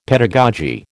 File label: "pedagogy", American